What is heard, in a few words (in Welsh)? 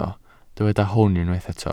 "hwn" Welsh